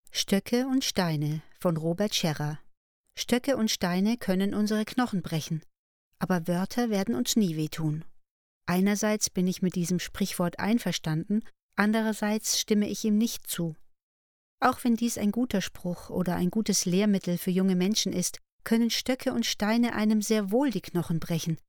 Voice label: German, Female

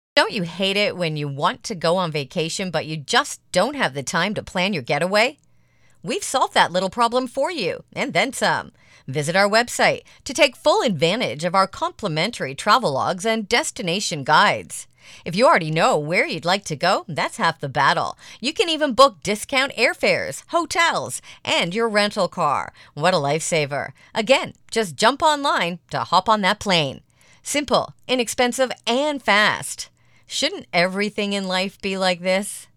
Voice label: English (US), Female

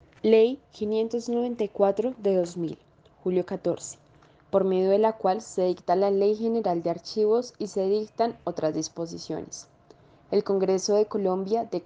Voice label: Spanish (LA), Female

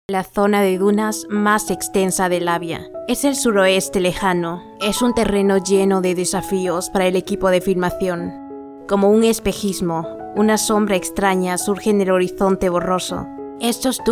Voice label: Spanish (LA), Female

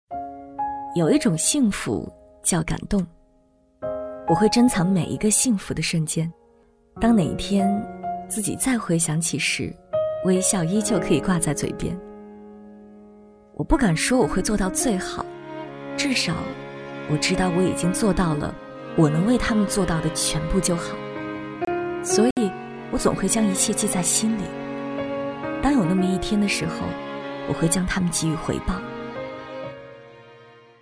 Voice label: Chinese Female

